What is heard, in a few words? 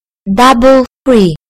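"double", British English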